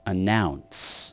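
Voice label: "announce", spoken word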